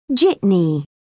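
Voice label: "jitney", British English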